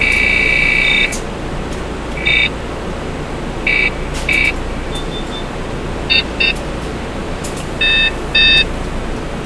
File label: compu talk; whiteforest